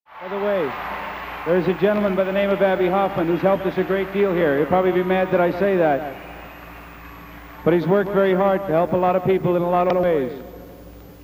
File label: Announcement; 1969 - Woodstock; Thanks Abbie